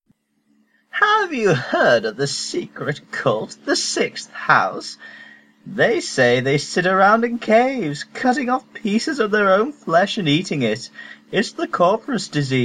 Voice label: breton audition, breton voice auditions